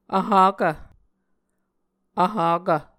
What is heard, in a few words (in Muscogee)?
"vhakv", Muscogee